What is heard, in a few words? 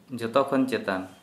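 Santali male on top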